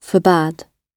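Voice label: "forbade", British English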